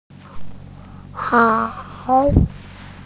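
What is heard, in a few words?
"hao3", Mandarin Chinese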